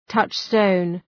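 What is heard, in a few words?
"touchstone", English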